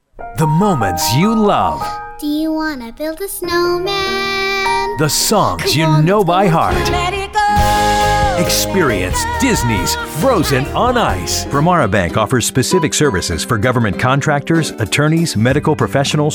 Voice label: voiceover